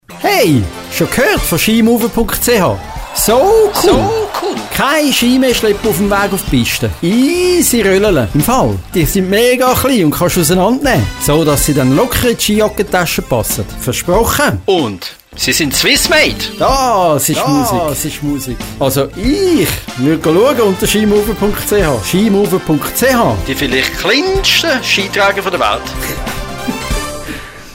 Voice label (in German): Werbung; Skimover